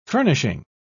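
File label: "furnishing", British English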